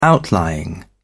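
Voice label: "outlying", British English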